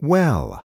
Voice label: "well", spanish